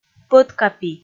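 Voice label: "пӧткапи", Komi, female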